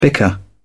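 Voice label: "bicker", British English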